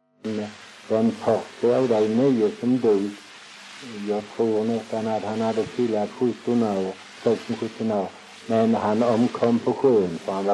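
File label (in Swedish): Väsby Skåne, dialekter svenska sagor; Folkminnen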